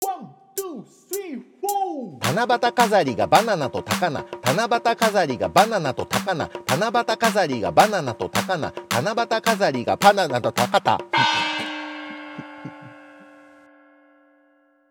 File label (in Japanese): 早口言葉リズム（中級編）